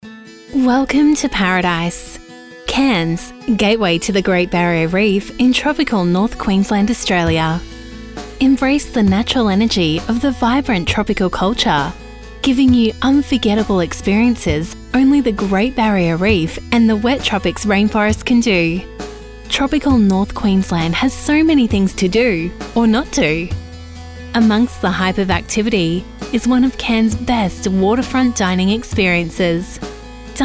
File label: Corporate Online; Dundees